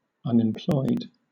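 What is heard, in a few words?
"unemployed", English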